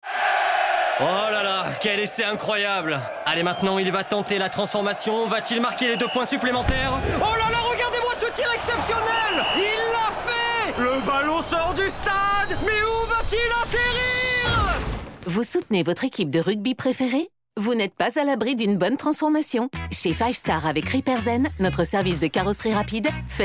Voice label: Spot Radio; FIVE STAR; RepairZen